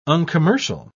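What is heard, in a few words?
"uncommercial", British English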